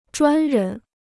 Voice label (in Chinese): "专人", Chinese